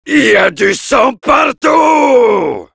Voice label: Heavy cheers, fr

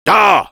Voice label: Heavy yes, fr

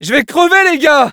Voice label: Scout help me, fr

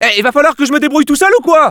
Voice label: Scout help me defend, fr

